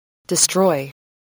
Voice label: "destroy", english spelling